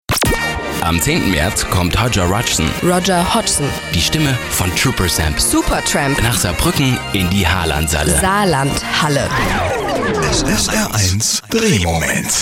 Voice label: trailer; saarlaendischer rundfunk